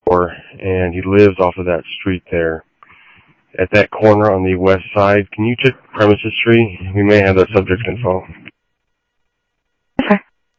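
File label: Sheriff East County, scanner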